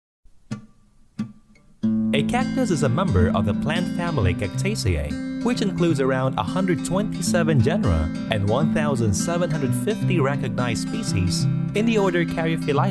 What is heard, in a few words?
english e-learning